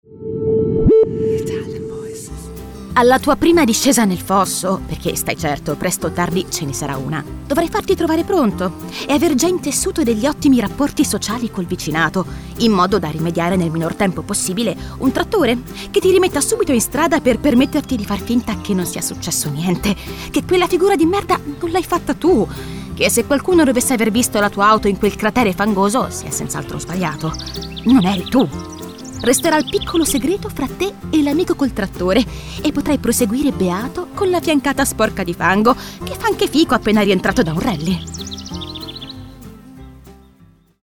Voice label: Italian voices, Sarcastic Easygoing